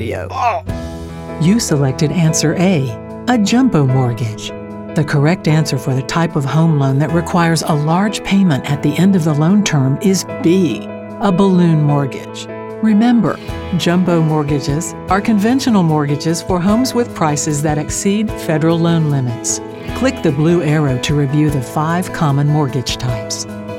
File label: Voice Over eLearning